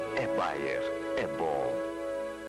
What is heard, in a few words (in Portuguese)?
comercial aspirina